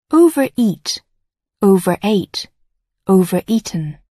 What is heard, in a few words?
"overeat", English